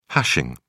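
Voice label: "hashing", British English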